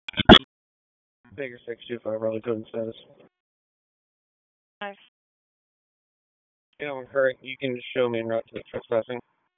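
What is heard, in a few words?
Police Southwest District Disp, radio; Raleigh Police Southwest District Disp; wake p25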